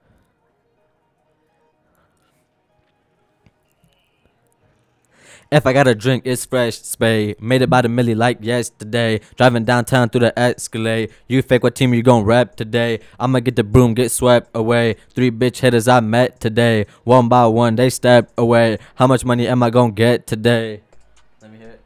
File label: recordin Mic Input; flstudio; Vocals